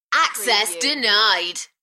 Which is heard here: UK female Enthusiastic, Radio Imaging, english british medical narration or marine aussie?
UK female Enthusiastic